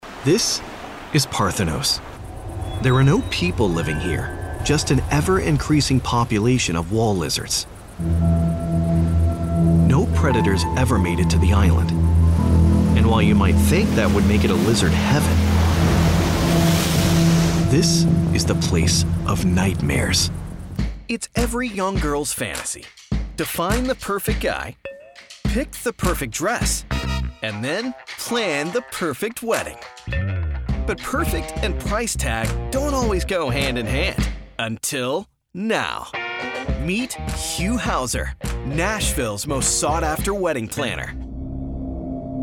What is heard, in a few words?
narration men